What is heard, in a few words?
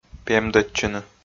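"пемдӧдчыны", Komi, male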